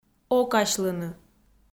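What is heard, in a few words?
"окасьлыны" Komi-Zyrian, female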